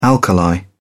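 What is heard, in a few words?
"alkali", British English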